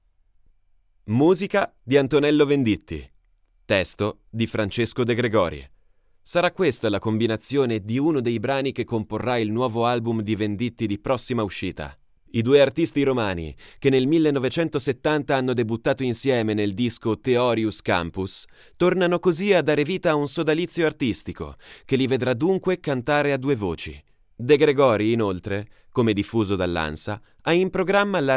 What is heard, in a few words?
Raw Studio Sample